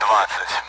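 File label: Speech Sound Russian Common AWACS Numbers; DCS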